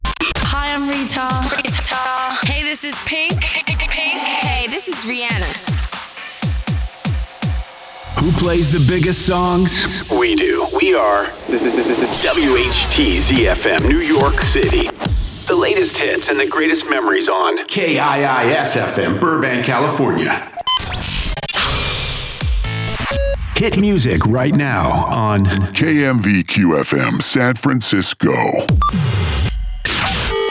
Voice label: Radio Imaging mixdown